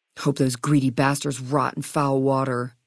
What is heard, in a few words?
female adult greeting; FNV